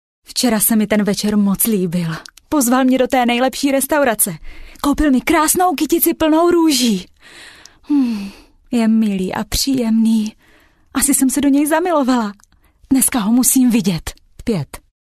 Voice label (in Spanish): locutora checa; Female Czech Voice over